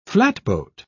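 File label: "flatboat", British English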